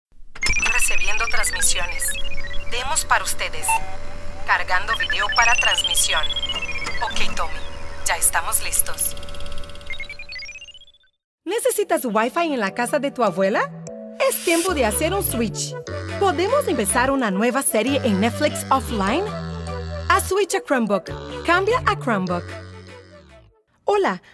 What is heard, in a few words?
female neutral spanish latam compilation